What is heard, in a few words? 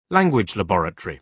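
"language laboratory", British English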